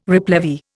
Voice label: "replevy", Indian